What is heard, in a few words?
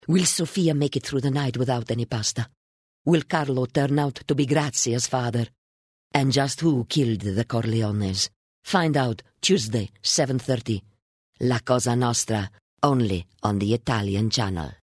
English Italian Accent Skill Presenter European